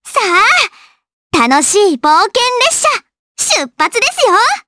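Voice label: voice, Japanese; Estelle; kingsraid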